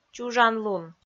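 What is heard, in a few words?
"чужанлун", Komi, female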